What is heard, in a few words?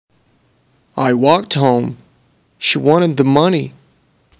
connected speech exemplo